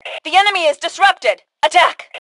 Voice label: Enemy Disarray, Female; T2 Female 01

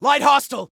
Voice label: Warn, Soldier Male

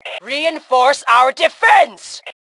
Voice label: Defend Reinforce, Female; T2 Female 04

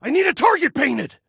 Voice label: Target Need, Male

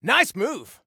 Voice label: Nice Move, Soldier Male; Soldier Male 01

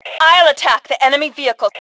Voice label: Self Attack Vehicle, Female; T2 Female 01

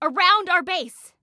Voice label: Enemy Around Our Base, Female; Female 02

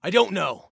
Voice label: Don't Know, Soldier Male

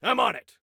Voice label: On It, Soldier Male

